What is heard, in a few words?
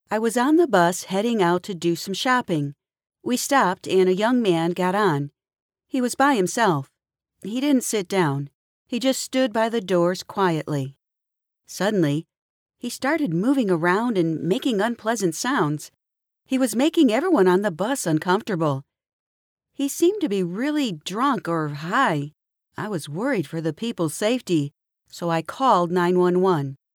female american voice over character; english american